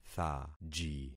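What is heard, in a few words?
"thaji", concatenated syllables; Affixing experiment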